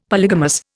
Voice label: "polygamous", Indian; Dictionary